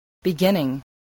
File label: "beginning", English